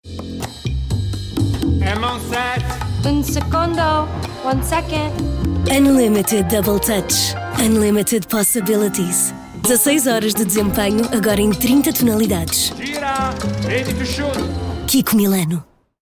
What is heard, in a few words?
Spot